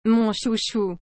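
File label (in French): "mon chouchou", French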